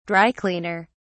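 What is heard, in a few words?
"dry-cleaner", British English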